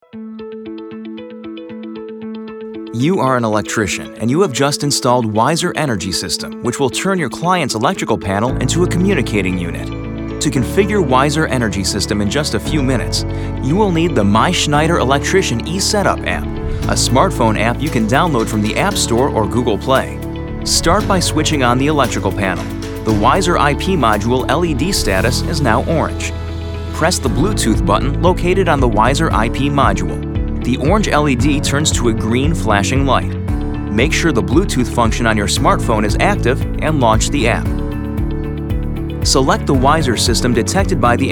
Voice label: Video Tutorial; Profi Publikum; NATIVE PROFISPRECHER